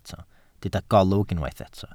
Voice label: "golwg", Welsh